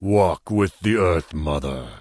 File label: Tauren Male Elder NPC Farewell